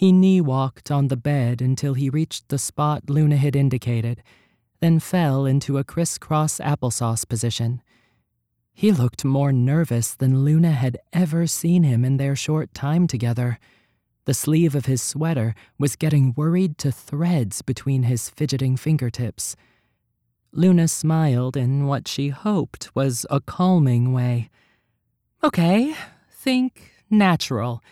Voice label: 3rd person ROMANCE COMEDY woman man French dialect